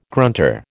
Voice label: "grunter", American